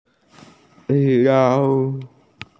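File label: "sou1", Mandarin Chinese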